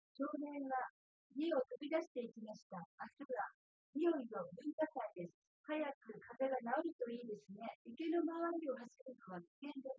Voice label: female4 synthconv; SiSEC08; SiSEC underdetermined